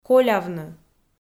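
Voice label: "колявны", Komi, female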